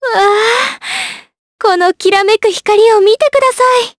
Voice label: Vox Victory, Jp; Talisha; kings raid